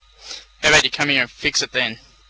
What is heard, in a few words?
msn messenger g722